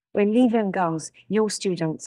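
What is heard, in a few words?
Voice Acting es, female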